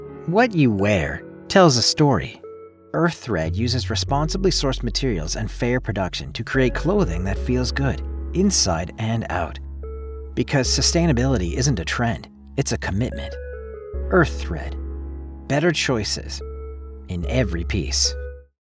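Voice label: WITH MUSIC; EARTH CHOICES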